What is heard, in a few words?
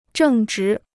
"正直", Chinese